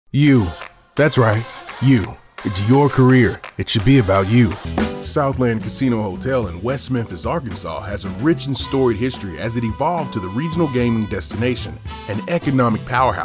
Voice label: Commercial